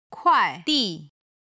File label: "kuaidi", Chinese